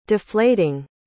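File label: "deflating", English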